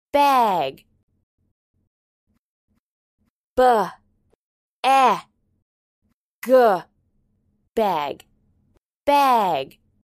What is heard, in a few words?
"beg", English